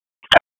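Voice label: Raleigh Police Downtown District Tactical, p25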